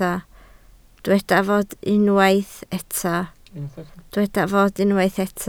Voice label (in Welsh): "fod", Welsh